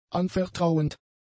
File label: "anvertrauend", German; adjectives